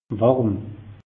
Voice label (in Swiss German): "warm", alsace; meteo